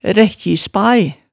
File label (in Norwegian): "rækkjispae", Hemsedal